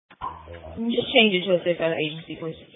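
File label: Police Patrol Dispatch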